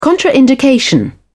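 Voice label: "contraindication", British English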